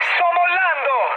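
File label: Italian bailout mood high, speech; War Thunder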